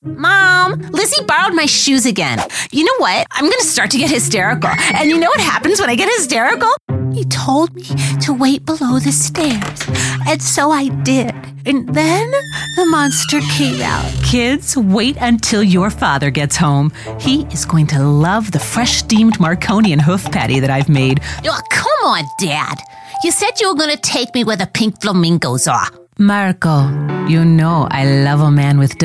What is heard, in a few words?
Animation